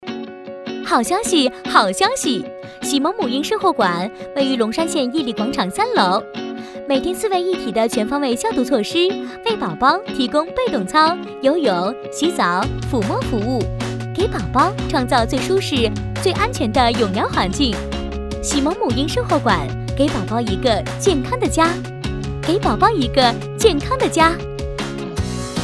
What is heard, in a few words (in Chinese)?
广告 促销 年轻; 喜萌3版